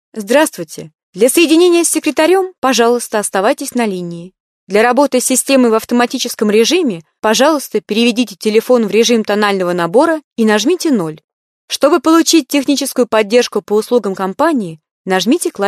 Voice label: russian female

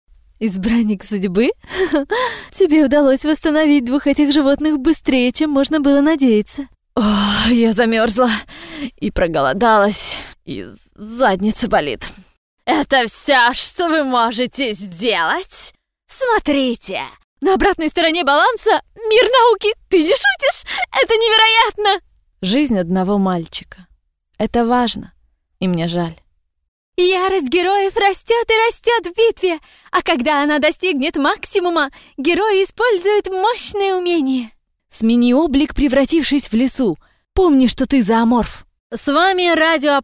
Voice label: rus

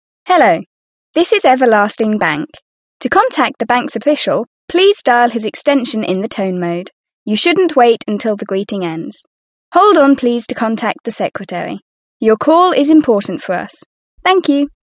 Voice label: british english female

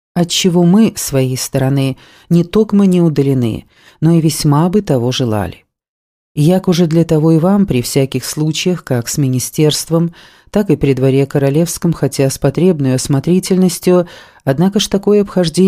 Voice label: russian female

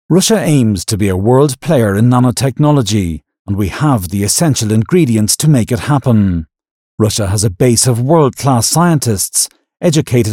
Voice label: british english male

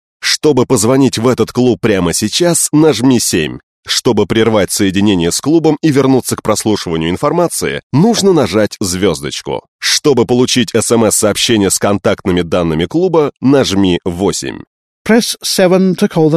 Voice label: ivr

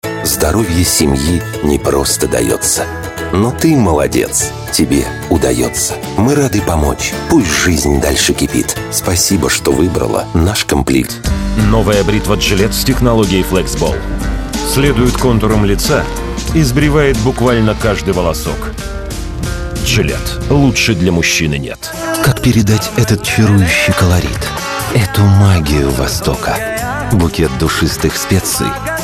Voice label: rus

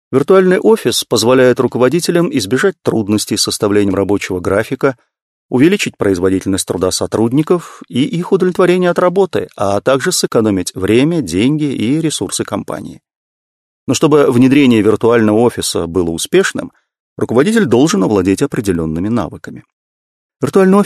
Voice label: russian male

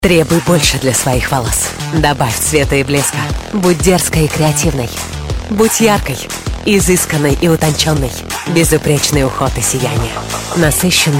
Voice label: RUS

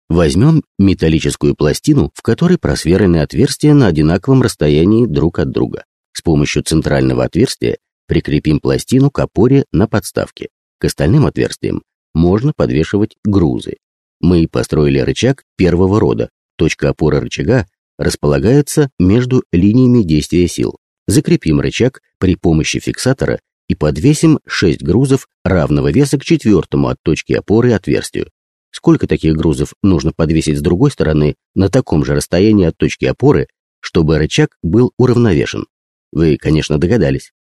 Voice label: russian male

